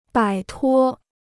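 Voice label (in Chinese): "摆脱" Chinese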